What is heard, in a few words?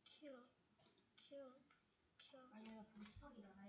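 "cube", spoken word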